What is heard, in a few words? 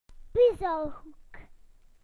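"puzzle corner", British English; PictureDictionary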